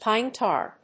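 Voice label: "pine tar", English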